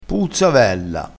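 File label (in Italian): "puzza avella", vocabolario dialettale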